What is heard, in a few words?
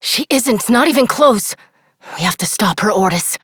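Voice, English; New War; Quarters; Operator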